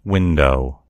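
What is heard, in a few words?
"window", American English; gcompris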